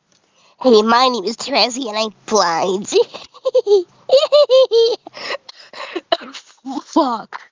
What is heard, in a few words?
voice message